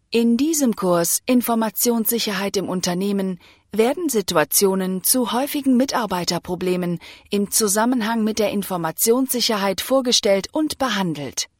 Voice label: German Female